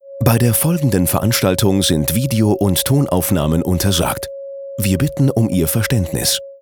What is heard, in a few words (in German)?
DE M, eventansagen